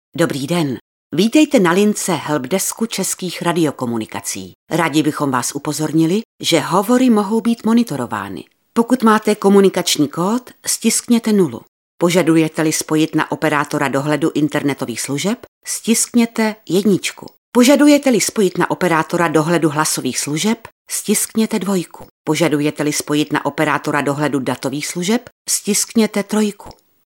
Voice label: Female Czech IVR